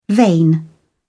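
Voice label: "vein", British English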